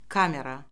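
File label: "kamera", Azerbaijani; Orfoqrafiya Lüğəti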